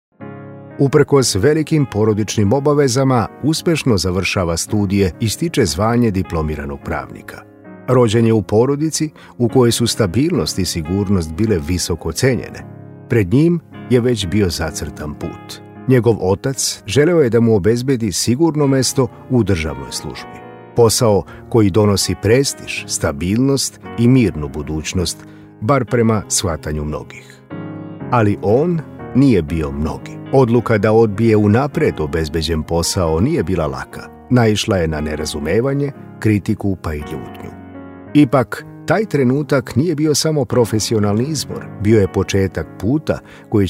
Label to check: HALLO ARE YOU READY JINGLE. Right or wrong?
Wrong